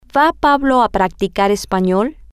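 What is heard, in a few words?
is going to practice Spanish, Spanish, female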